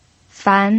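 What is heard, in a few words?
"fan", Mandarin Chinese, second tone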